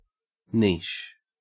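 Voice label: "niche", Australian English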